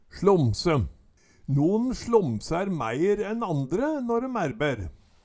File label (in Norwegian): "slomse", Numedalsmål; Eksempel på bruk Non slomsær mæir enn andre nå døm ærber